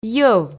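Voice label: "йӧв", Komi, female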